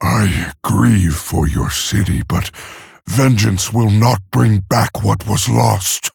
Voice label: Male Orc; Story Hero Thrall; Story Jaina Mission7 Exchange

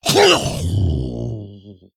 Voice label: Male Ghoul Death

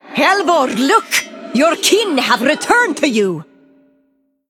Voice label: Female Iron Vrykul Emote

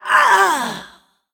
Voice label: Female Stone Watcher Death

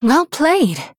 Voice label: Female Human Well Played; Jaina

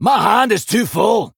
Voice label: Male Dwarf Error Hand Full

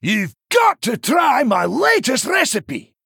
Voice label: Male Dwarf Picked

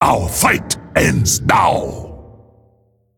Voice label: Jade Warlord Male Mogu Play

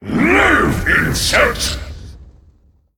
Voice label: Male Demon Trigger

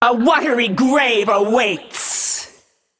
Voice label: Female Naga

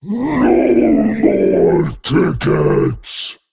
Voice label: Male Abyssal Play

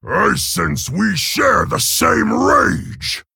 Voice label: Male Orc Well Played

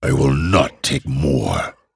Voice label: Male Dragon ERROR HAND FULL

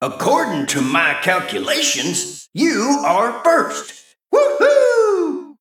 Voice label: Male Mech First Place

